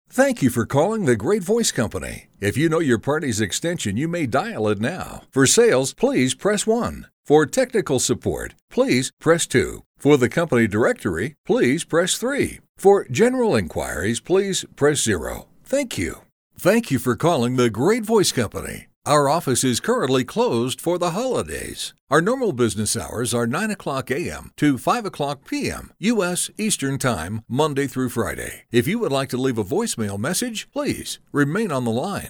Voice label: US English